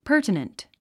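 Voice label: "pertinent", English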